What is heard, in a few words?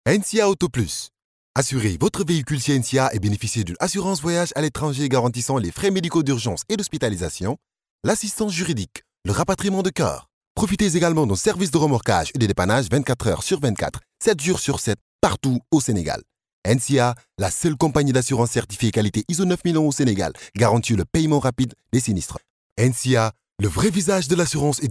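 African French, male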